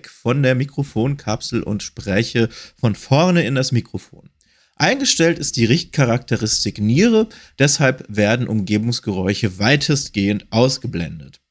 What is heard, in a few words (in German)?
Blue Yeti mit Blue Voice